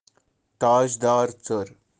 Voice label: "tajdaar tser tsar" rofous, kashmiri